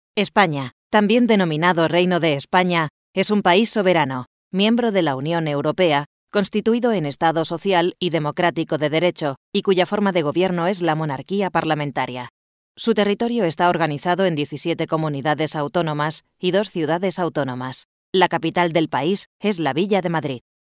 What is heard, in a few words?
female spanish castilian